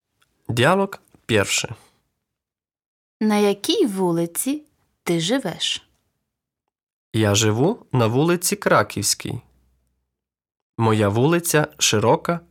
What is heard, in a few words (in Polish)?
Lekcja Dialog